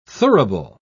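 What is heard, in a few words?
"thurible", British English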